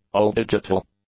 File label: "all-digital", English; adjectives